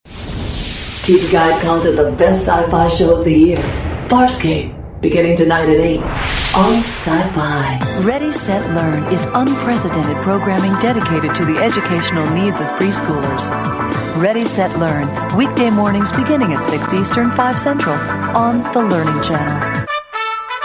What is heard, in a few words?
promos